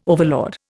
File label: "overlord", Indian